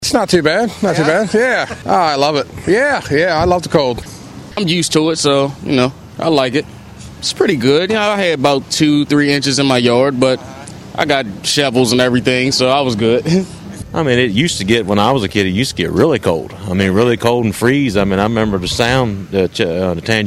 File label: vox pop; snow